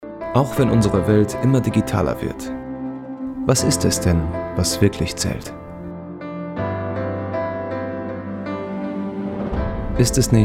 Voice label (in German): Imagefilm; Leuchtturmgruppe